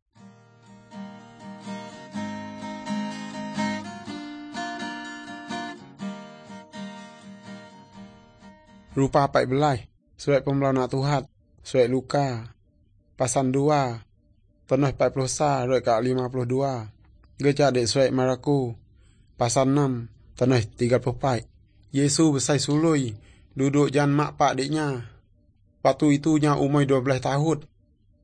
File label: good news, urak lawoi; malay